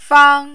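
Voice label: "fang", Mandarin, tone 1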